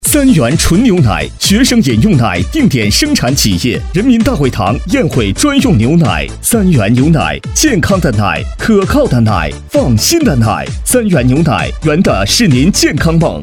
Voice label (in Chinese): 男 促销